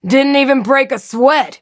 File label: victory, en; cookie run